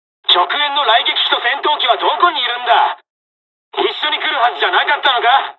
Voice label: dialog, dialogs japanese; us midway; War Thunder